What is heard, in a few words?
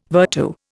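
"vertu", English word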